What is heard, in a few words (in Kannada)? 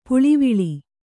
"ಪಲವಲ", Kannada